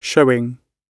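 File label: "showing", British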